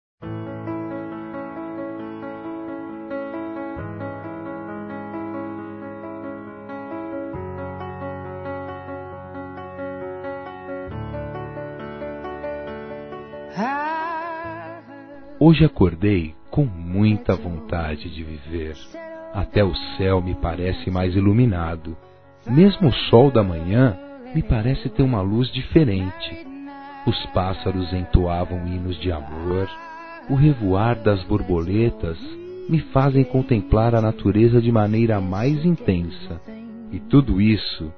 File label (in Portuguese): Páscoa Romântica Masculino; Telemensagens